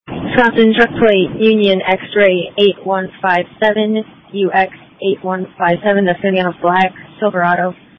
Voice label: Police Tactical Encrypted; sdr